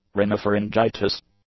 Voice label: "rhinopharyngitis", English; nouns

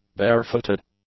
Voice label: "bare-footed", English; adjectives

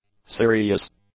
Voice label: "serious", English; adjectives

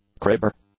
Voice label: "cribber", English

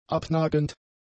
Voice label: "abnagend", German; adjectives

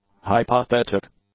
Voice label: "hypothetic", English; adjectives

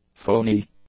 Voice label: "phoney", english; adjectives

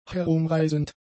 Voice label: "herumreisend", German; adjectives

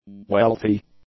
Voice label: "wealthy", English; adjectives

